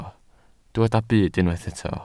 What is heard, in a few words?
"byd", Welsh